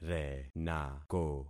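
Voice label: "renako", concatenated syllables; Affixing experiment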